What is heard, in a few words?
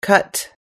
"cut", US English